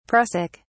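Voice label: "prussic", British English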